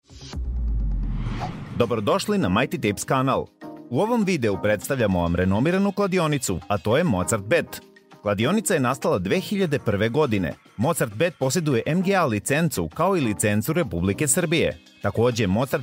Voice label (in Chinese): 塞尔维亚语男年轻活力